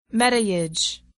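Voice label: "metayage", English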